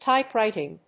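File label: "typewriting", English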